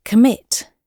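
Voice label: "commit", British English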